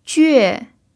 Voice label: "jue4", chinese